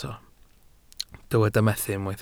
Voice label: "methu", Welsh

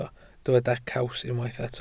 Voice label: "caws", welsh

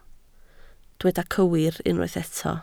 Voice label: "cywir", Welsh

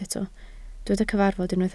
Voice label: "cyfarfod", Welsh

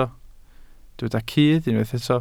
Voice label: "cudd", Welsh

